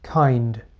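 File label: "kind", English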